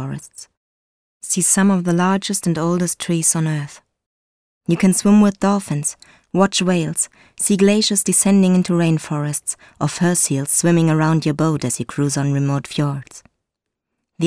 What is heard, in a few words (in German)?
englisch sachtext new zealand